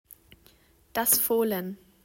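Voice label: "das Fohlen", German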